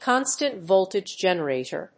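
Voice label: "constant-voltage generator", English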